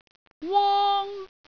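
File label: "wong1", Cantonese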